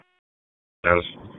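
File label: Police Southeast District Dispatch; Raleigh; wake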